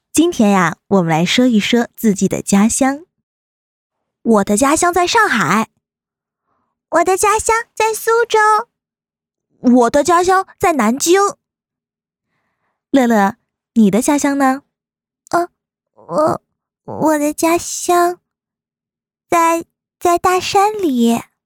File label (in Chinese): 多种童音; 家乡